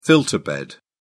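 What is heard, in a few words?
"filter bed", British English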